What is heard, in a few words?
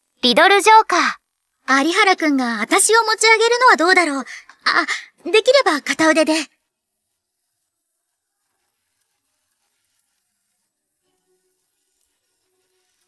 Vocals; Riddle Joker; 視線がいやらしい; RIDDLE JOKER 在原七海